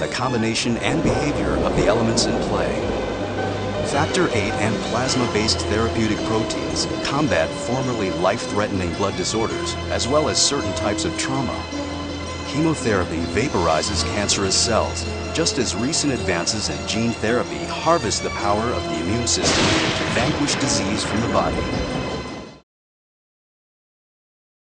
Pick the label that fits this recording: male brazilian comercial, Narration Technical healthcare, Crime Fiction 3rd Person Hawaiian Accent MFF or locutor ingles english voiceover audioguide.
Narration Technical healthcare